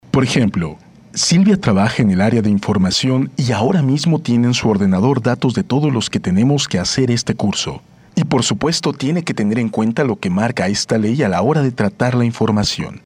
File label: locutor mejicano mexican voiceover